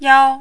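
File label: "yao1", mandarin, high level tone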